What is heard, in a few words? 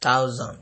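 "thousand", Nigerian Pidgin, male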